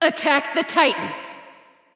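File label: vox attack male; smite; awilix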